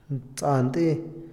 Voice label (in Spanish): rueda, Otomí, hombre; Movimiento; INALI